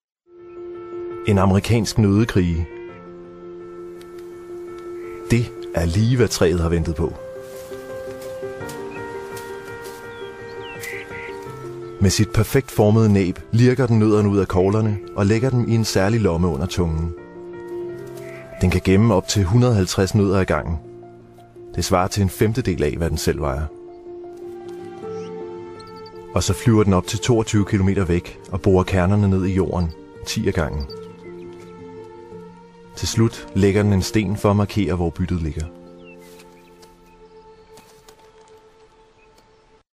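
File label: danish male narration, voice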